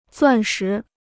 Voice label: "钻石", Chinese